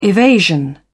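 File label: "evasion", British English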